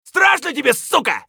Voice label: scav battlecry; eft